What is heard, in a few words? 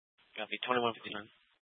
Mesa PD Dispatch; 1 Fiesta Dispatch